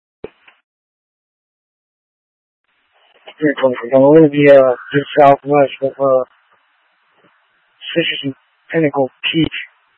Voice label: Mutual Aid-Intersystem Interop B, scanner